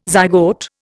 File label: "zygote", Indian